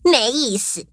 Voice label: defeat, zh-hant; Cookie Run